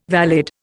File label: "valid", Indian